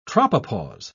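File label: "tropopause", British English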